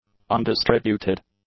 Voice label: "undistributed", English; adjectives